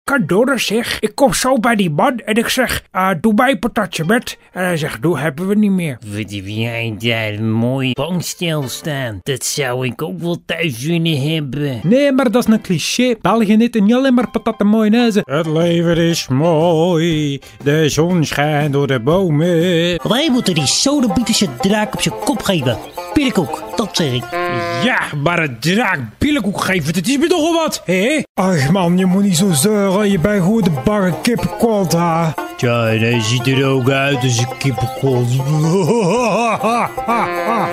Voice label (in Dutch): NL Character and animation